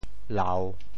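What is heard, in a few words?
"lau3", Teochew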